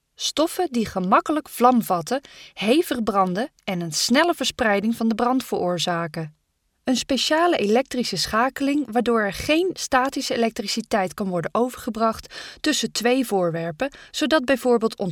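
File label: Dutch, female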